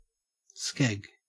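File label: "skeg" English, Australian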